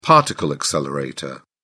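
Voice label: "particle accelerator", British English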